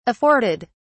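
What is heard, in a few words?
"afforded", English, uk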